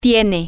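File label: you are with formal, Spanish, female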